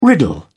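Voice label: "riddle", British English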